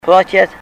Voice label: pay, Ninilchik Russian; v ipfv. pay

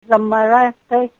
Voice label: dirty, Ninilchik Russian

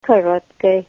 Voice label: "short", Ninilchik Russian

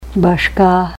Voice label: "bashka", Ninilchik Russian

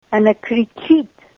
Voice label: she is shouting, Ninilchik Russian

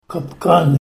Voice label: trap, Ninilchik Russian

